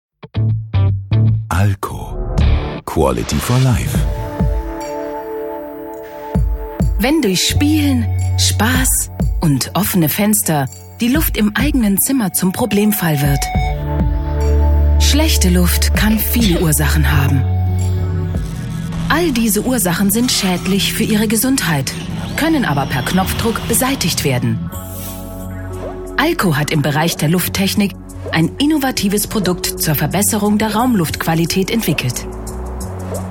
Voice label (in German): Imagefilm, frauen; ALKO